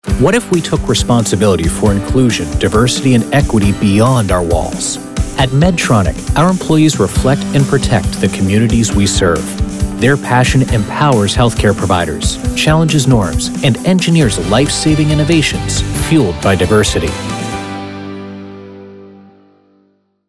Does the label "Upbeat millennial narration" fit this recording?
Yes